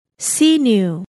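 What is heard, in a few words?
"sinew", English word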